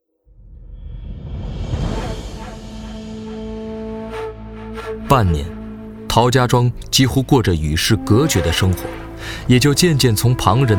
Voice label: 有声小说悬疑

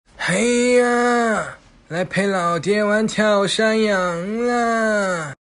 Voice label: 老爹音, 模仿; 仙侠小说丨御姐成熟妩媚

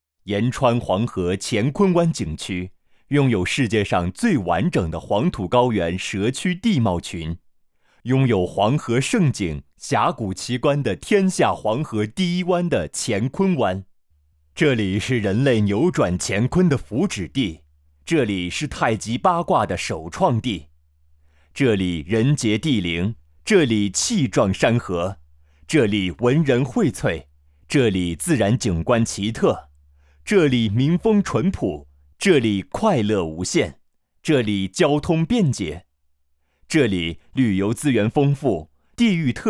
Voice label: 宣传片旅游浑厚大气

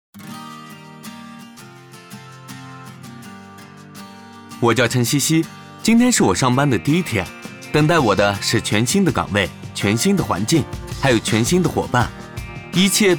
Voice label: 口播

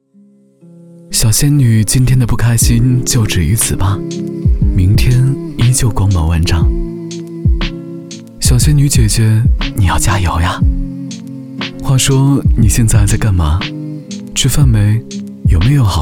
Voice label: 角色温柔暖男

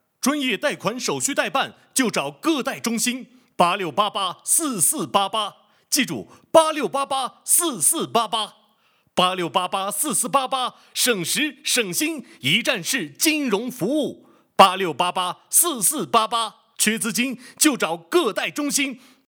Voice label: 促销 贷款

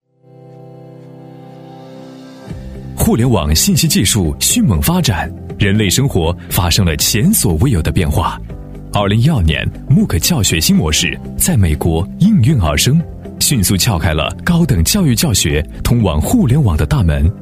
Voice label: 高端男科技动感; 优课联盟

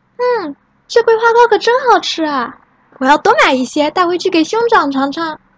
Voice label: 少女

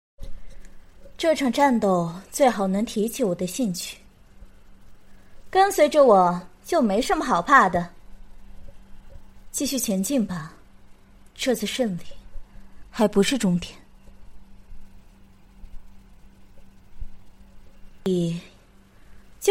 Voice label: 游戏部分角色配音; 战双帕什弥

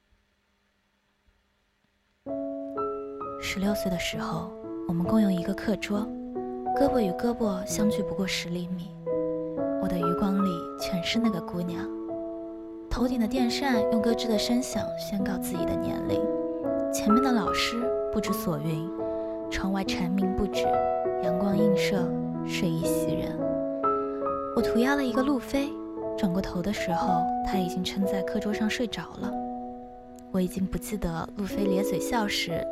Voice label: 电台试音

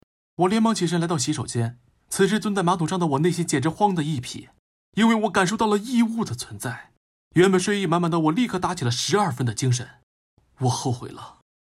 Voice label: 沙雕口播

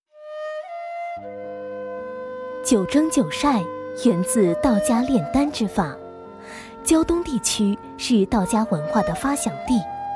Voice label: 记录片; 真人商业配音 纪录片聊城保卫战旁白

